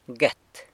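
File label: "guet", San Lucas Quiaviní Zapotec; tortilla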